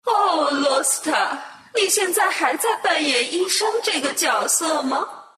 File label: rival, zh; Dota 2